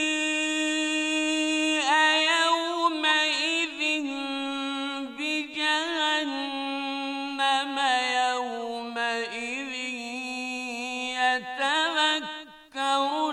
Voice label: Albanian